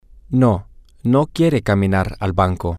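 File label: No he doesn't want to walk to the bank, Spanish, male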